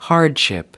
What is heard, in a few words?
"hardship", us